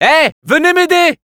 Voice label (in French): help me capture, fr; Scout